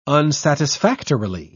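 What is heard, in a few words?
"unsatisfactorily", English, British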